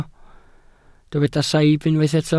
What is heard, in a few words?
"saib", Welsh